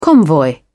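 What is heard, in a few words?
"convoy", British English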